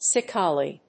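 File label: "secale", English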